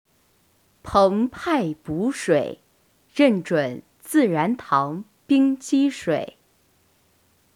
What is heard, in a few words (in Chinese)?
广告词